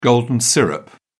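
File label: "golden syrup", British English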